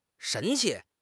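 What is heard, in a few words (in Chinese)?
"神气", Chinese; HSK6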